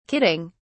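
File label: "kidding", British English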